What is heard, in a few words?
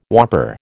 "whopper", American